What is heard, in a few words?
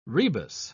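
"rebus", British English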